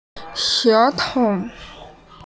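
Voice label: "she2tou5", Mandarin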